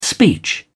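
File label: "speech", English, British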